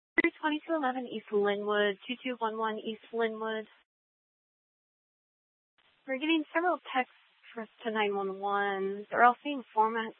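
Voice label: Police Department Dispatch, scanner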